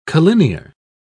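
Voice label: "collinear", British English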